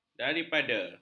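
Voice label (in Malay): "daripada", Malay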